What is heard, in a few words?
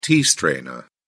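"tea-strainer", British English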